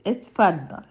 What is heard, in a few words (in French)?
"Bienvenue" Arabe